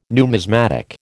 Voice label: "numismatic", American